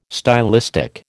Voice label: "stylistic", American